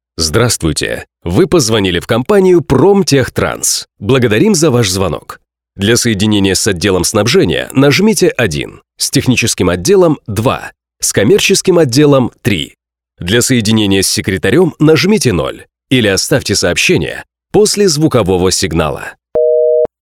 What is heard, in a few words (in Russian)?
пример автоответчика